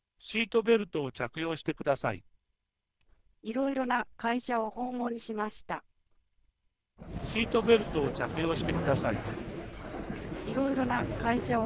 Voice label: 3200bps decoded, codec